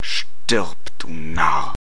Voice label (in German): Narr